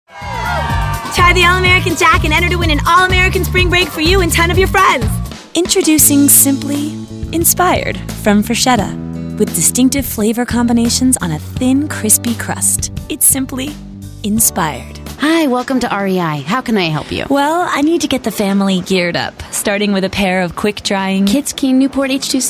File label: commercial, Female voices